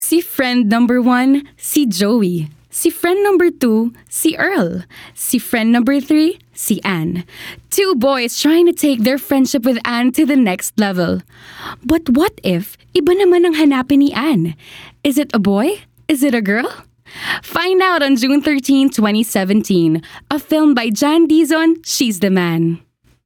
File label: Taglish (Warmhearted, Enthusiastic)